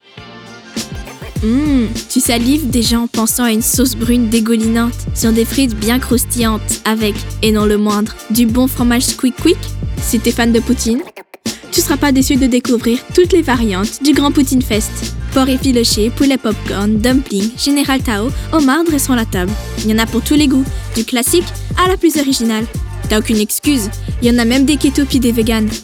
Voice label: Demo vocal; FR 2024 Poutine Fest